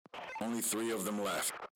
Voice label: enemy, radio